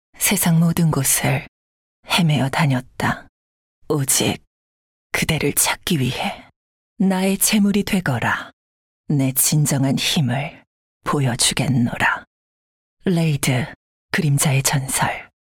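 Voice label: Korean, female, narration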